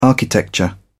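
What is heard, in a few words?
"architecture", English, British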